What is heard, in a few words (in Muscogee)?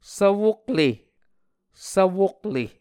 "Svwokle", Muscogee